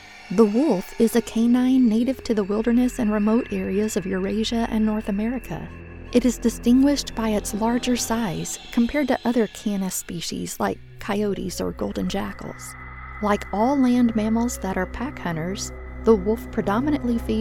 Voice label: Wolves Documentary

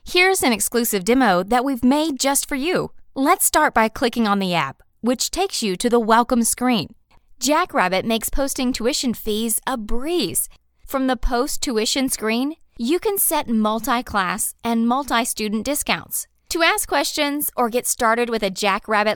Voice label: Tutorial Explainer

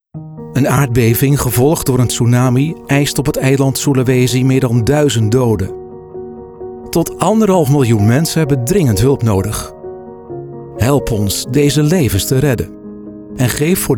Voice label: Commercials

